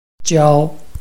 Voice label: "jiao1", Chinese

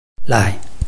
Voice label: "lai5", Chinese

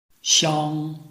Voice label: "xiang1", Chinese

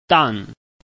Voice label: "dan4", Chinese

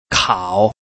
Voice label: "kao3", Chinese

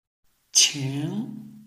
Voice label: "qing2", Chinese

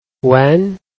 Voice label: "wen2", Chinese

